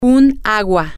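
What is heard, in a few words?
a Water, Spanish, female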